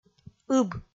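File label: "ыб", Komi, female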